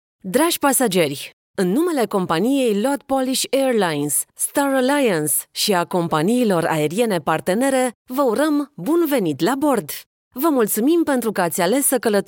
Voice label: romanian system announcements

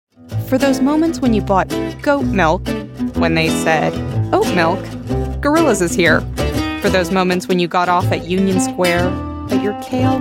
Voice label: commercial sample

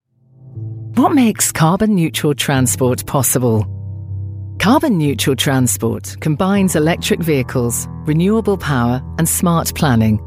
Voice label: explainers, Sexy Strong Confident

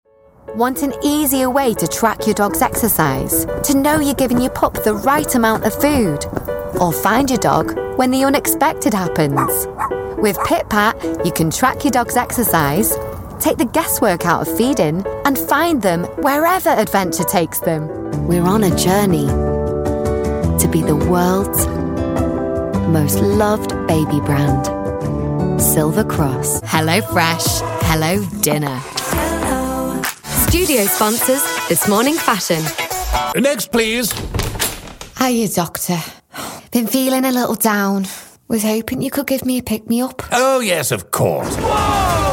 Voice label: commercial reel

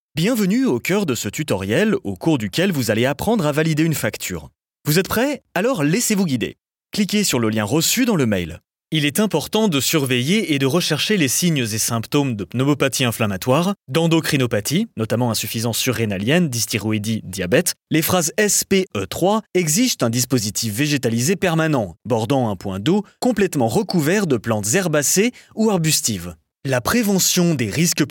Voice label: french male elearning

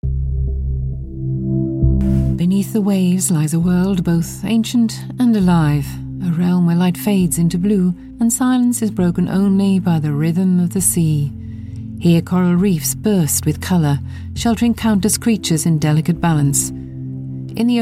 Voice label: documentary

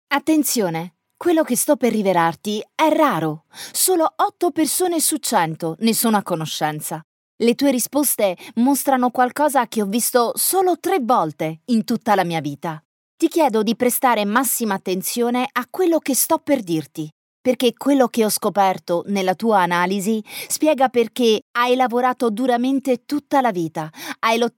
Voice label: italian female elearning

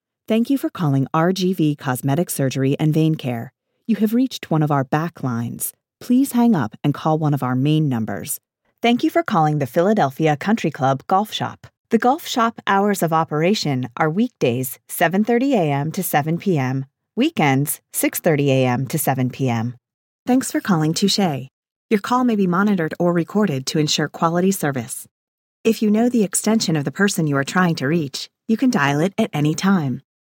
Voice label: American telephone

